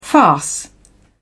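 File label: "farce", British English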